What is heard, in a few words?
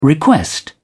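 "request", British English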